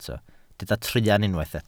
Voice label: "truan", Welsh

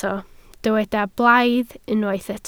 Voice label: "blaidd", Welsh

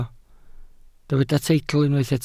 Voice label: "teitl", Welsh

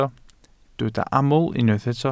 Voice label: "aml", Welsh

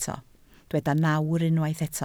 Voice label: "nawr", Welsh

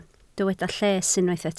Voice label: "lles", Welsh

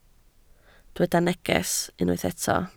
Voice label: "neges", Welsh

